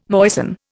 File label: "moisten", Indian